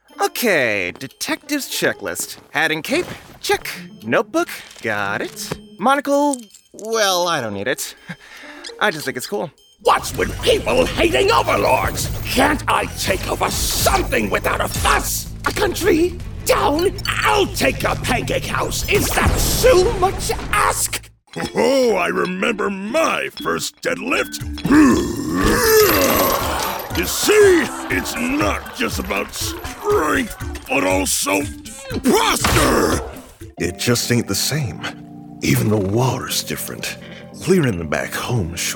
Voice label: Animation